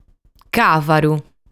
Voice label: "Càvaru", Sardu

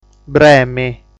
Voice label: "Bremi", sardu

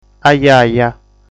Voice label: "Ajaja", sardu

